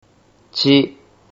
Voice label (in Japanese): "chi", Japanese